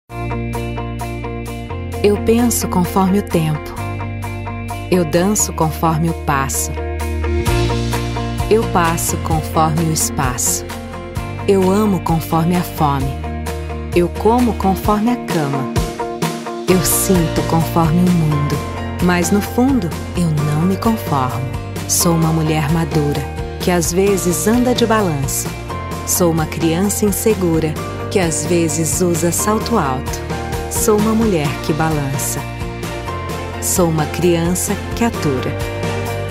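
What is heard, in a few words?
Brazilian Portuguese, female, corporate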